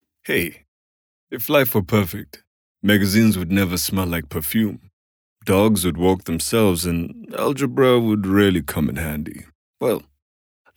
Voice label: English South African, Male